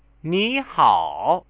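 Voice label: "nihao" Chinese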